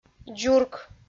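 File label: "дзурк", Komi, female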